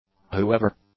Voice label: "whoever", English